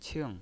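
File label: "qing" fourth tone, pinyin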